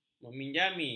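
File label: "meminjami", Malay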